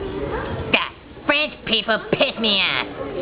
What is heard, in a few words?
french